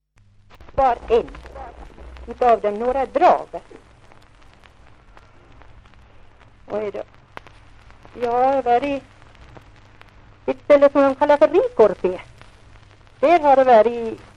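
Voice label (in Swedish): Järbo Gästrikland, dialekter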